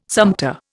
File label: "sumpter", Indian